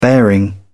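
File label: "bearing", English, British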